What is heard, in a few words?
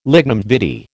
"lignum vitae", American